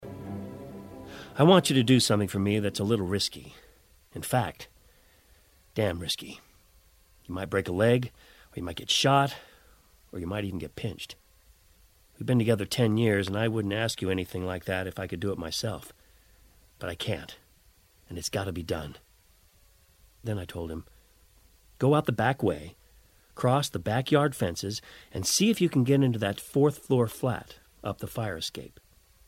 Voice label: audiobook